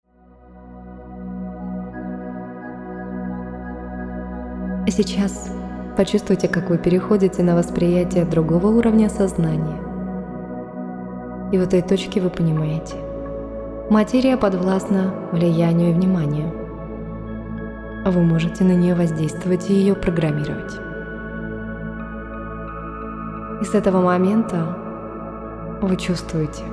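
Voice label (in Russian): meditatsiya omolazhivayushchee dykhanie; meditacziya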